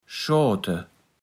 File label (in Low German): "schoate", Platt